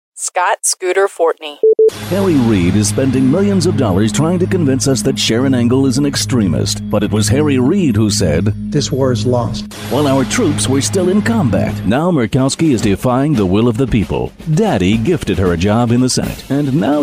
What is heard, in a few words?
vo political ads